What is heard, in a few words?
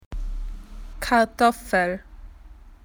potatoes, spoken AU; PictureDictionary